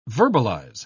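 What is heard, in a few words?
"verbalise", British English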